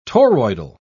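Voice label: "toroidal", English, British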